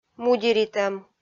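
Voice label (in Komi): "мудеритӧм", Komi, female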